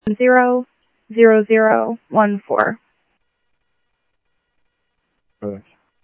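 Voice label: Mesa Simulcast Police Department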